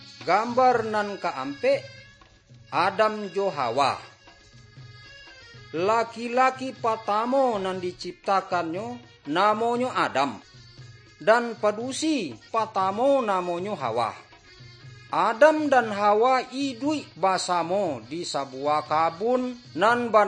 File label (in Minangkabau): good news minangkabau